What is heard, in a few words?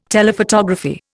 "Telephotography", Indian